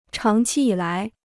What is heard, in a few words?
"长期以来", Chinese